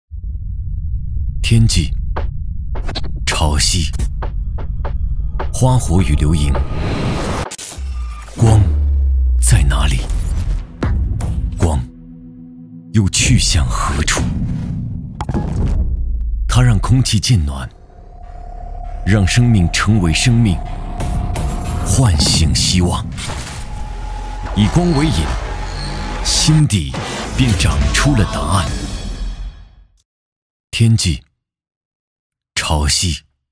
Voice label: 男国 微电影 独白大气品质感

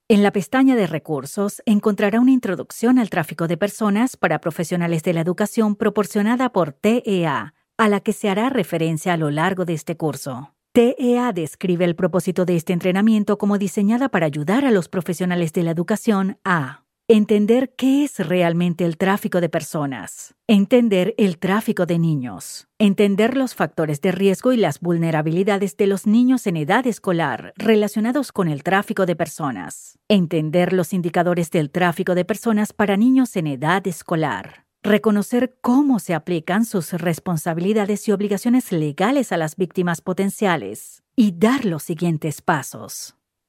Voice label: 西班牙语女 外语 小语种

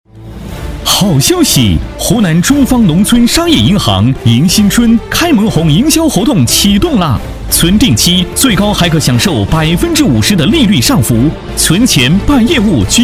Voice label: 男国 广告 促销 农村商业银行促销

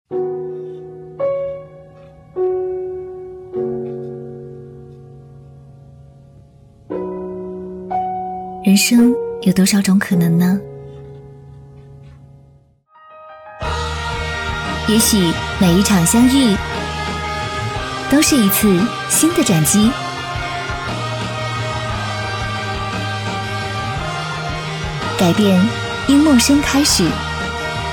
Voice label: 广告 互联网 年轻; 陌陌

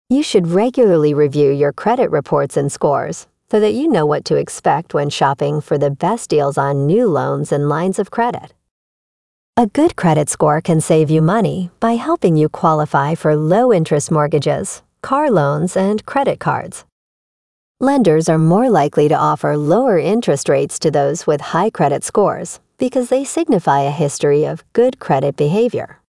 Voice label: 外籍女英 美式英语 解说 讲述; CreditCard; CreditCard解说

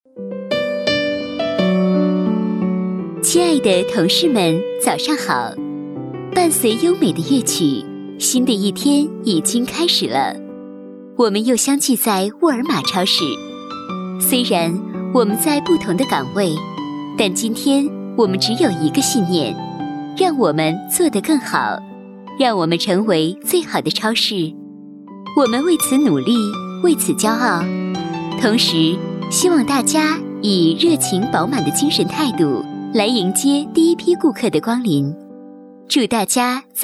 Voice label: 超市广播; 沃尔玛超市广播

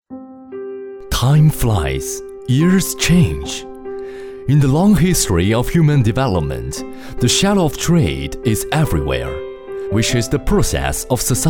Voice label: 男英 专题 企业 英音专题; 外语; 英语; 英音专题BBEC

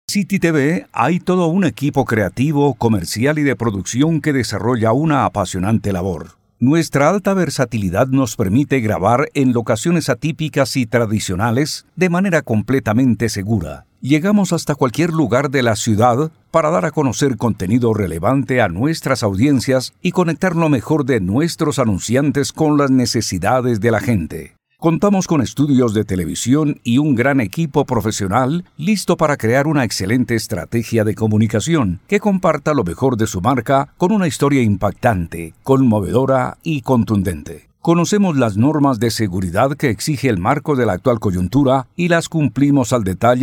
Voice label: 西班牙语男, 外语; 小语种

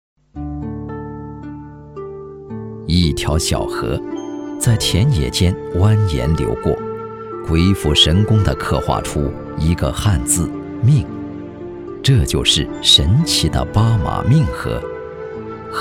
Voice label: 纪录片 自然科教 讲述, 男国; 广西八马命河

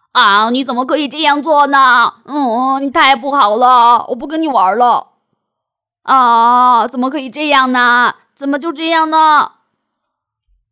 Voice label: 动画 童声 男童

